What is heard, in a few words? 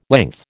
"length", American